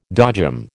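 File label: "dodgem", American English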